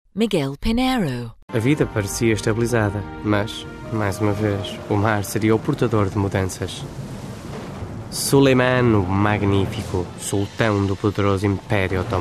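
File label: portuguese narration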